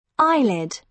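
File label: "eyelid", British English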